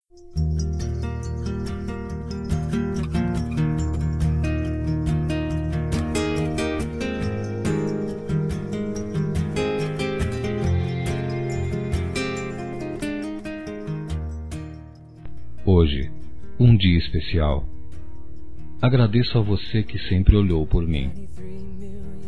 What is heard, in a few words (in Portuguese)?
Dia das Mães Para Avó, Masc; Telemensagens 2017